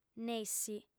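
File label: "nessi" Sardinian, female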